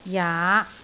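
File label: "jaa5", Cantonese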